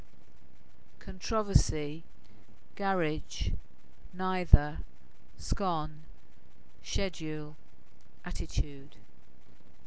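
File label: the wirral cheshire uk female, accent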